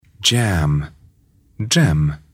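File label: "jam", English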